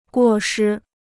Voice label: "过失", Chinese